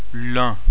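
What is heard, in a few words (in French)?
"lun", French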